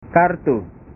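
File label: "kartu", Indonesian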